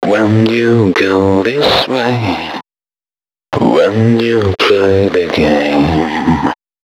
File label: male d#130, Dance Ultra Voice hooklines